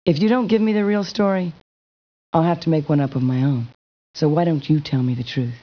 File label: woman